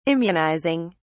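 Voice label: "immunizing", British English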